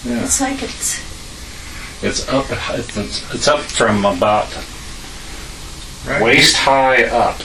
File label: faint voice; marland st